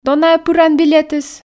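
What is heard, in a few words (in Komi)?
"дона-ӧ пыран билетыс", Komi, female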